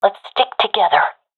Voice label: request stick together, professional fem; vo; Counter Strike Model Pack; CS:GO